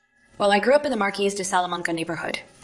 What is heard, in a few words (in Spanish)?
Voice Acting es